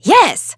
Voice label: Emote Yes; Tyra; Paladins